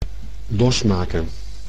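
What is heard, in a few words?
"losmaken", Dutch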